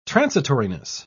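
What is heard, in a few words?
"transitoriness", British English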